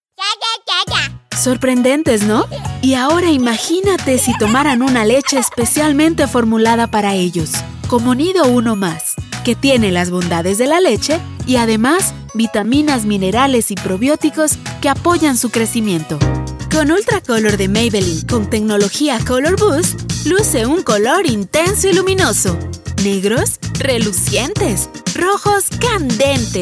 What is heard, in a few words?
commercial